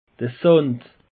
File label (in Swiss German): "sand", alsace; peche